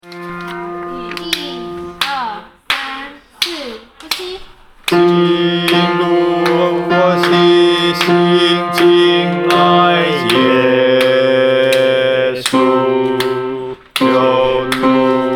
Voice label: bass, poetry